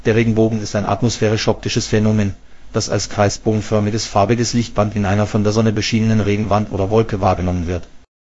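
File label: speaker, American English; piper-voices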